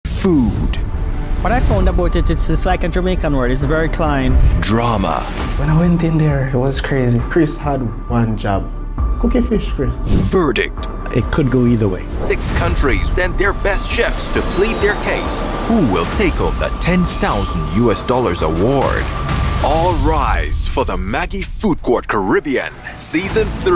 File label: Intro with SFX, Calm, Pensive, Relieved